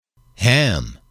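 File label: "ham", English, male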